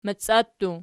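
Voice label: "maciattu" nominative, Archi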